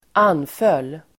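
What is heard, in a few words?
"anföll", Swedish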